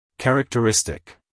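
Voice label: "characteristic", American English male; everyone-can-use-english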